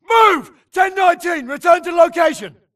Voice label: grunt flee Player Titan Call; imc; Titanfall 2

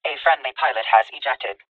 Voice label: titan Tone ejected Friendly; Titanfall 2

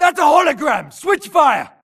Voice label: grunt react Holopilot Decoy; imc; Titanfall 2

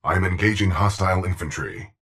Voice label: autoEngageGrunt; titan Bt; Titanfall 2

